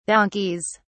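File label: "donkeys", British English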